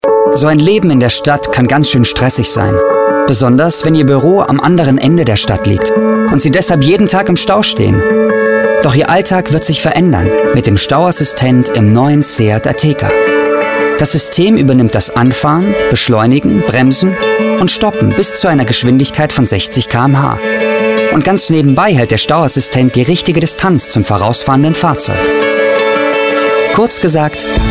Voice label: erklärfilm; seat ateca

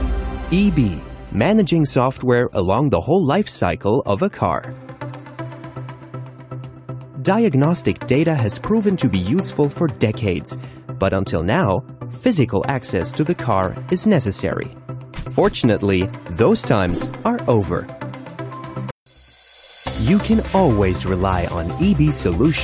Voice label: englisch; ebcadian; LOFT VOICES

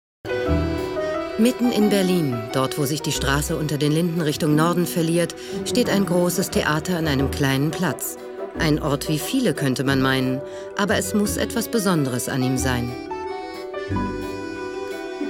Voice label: kommentar

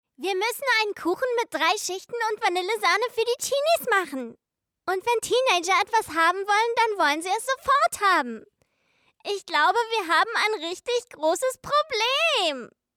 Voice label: kindlich sprachprobe

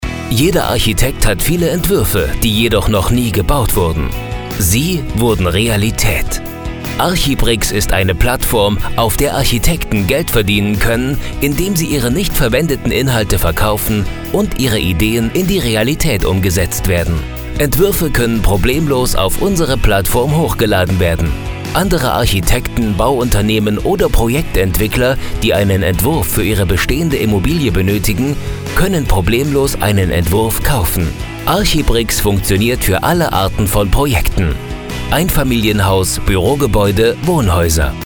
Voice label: Klar Serioes mit Drive; LOFT VOICES

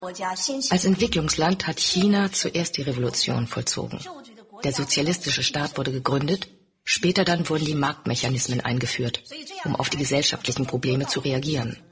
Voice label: Voice Over Doku; Marx

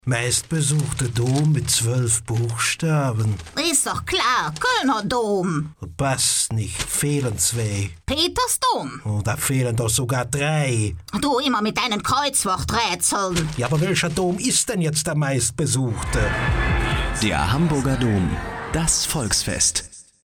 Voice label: werbung hamb. dom

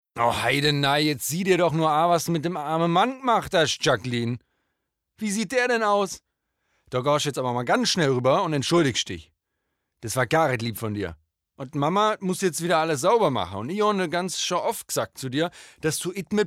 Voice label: Dialekt Badisch

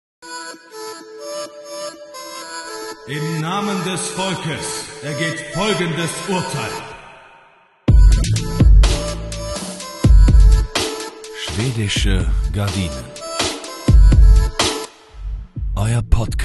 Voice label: Podcasttrailer; Schwedische Gardinen

